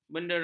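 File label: "benderang", Malay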